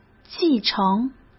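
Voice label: "继承", Mandarin Chinese; hsk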